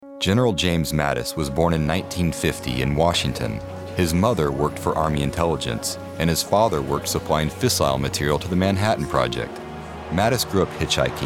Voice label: Narration, museum narration voice; museum narration voice actor